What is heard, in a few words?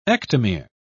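"ectomere", British English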